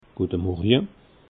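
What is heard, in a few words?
"guetermorje", Alsatian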